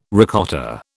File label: "ricotta", British